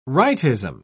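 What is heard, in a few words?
"rightism", British English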